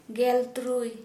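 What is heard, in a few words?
sixteen, Santali, female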